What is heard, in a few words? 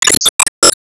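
Voice Emo Glad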